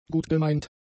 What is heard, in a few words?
"gutgemeint", German; adjectives